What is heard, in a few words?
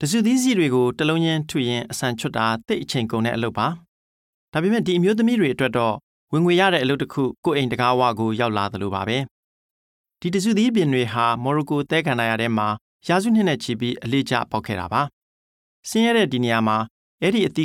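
Burmese, Narration; GoLocalise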